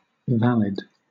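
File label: "valid", British English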